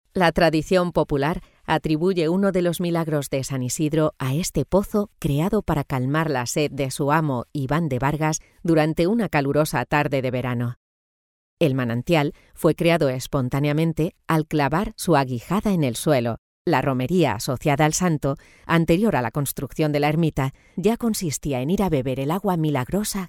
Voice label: locutora spanish voiceover